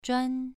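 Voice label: "zhuan2", Chinese pronunciation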